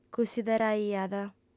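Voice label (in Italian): "cussideraiat", lingua sarda, female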